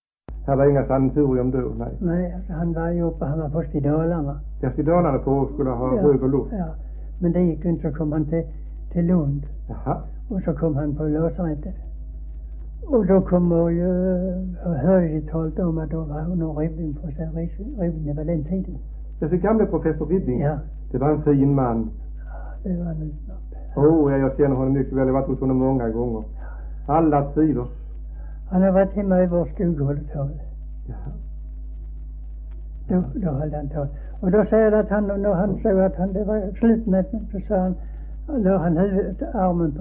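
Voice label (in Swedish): Höör Skåne, Svenska sagor dialekter; Folkminnen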